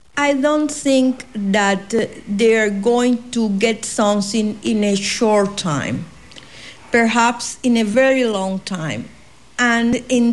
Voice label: Hispanic Cuban, Non-Native Speakers, dialect